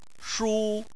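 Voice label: "shu" tone 1, Chinese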